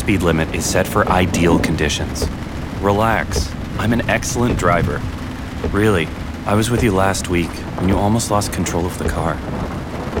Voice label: Slow Down Radio Spot